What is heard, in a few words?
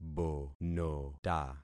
"bonota", concat syllables; Affixing experiment